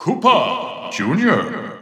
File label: Japanese Announcer; Bowser Jr.; SSB4; SSBU